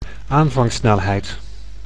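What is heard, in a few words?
"aanvangssnelheid", Dutch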